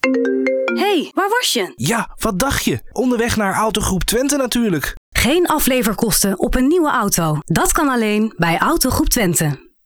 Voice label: voice over; autogroep twente tagon